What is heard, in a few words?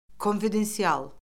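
"konfidensial", Azerbaijani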